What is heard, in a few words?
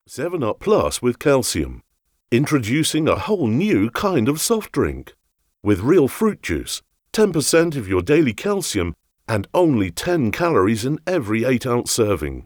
Commercials